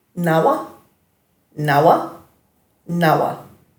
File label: "nawa", Dhurga